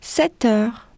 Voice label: french 9 hour; samsung YP-U5; Audio User Interface